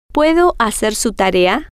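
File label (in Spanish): puedo Hacer Su Tarea, spanish, female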